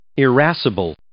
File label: "irascible", English